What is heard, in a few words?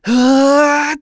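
Vox Casting, voices heroes English; Lucias; kingsraid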